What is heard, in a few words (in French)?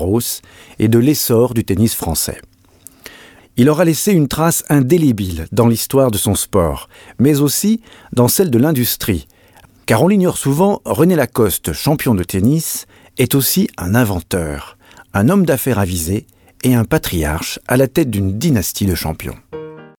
AUDIOGUIDE Journaliste; LACOSTE